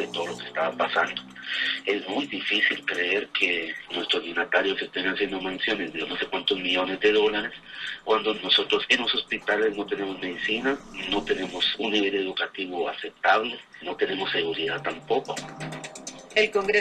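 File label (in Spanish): Marcha protesta pedir renuncia, voz; Otto Pérez; RFI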